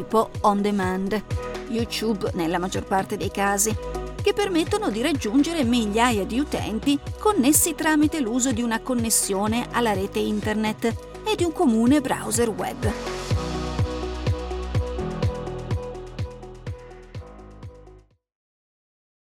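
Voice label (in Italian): Italian, E-Learning; GoLocalise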